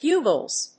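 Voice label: "Bugles", English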